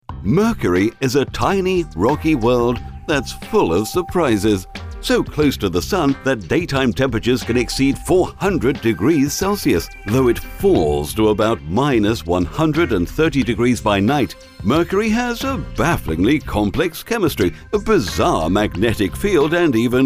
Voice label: EXPLAINER STYLE UK Voiceover